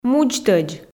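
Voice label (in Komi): "мудзтӧдз", Komi, female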